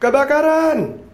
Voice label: "fire", indonesian